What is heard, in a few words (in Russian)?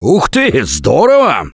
Nice Job, left4dead2 russian, Survivor Voice